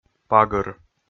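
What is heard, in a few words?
"пагыр", Komi, male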